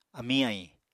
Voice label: "amiñ-ahiñ", Apatani